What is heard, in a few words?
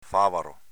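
"favaro", Venetian dialect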